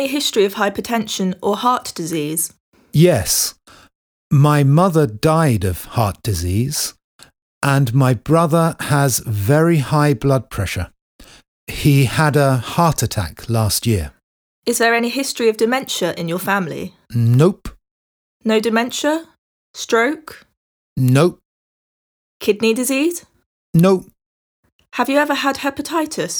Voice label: Conversation; Bedside Manner Beginner